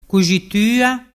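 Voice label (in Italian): "cuxitua", dialect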